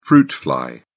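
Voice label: "fruit fly", British English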